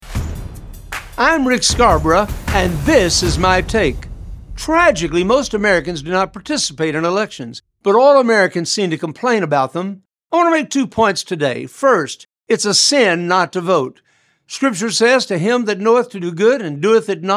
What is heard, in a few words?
Radio Spot